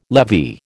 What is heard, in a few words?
"levy", American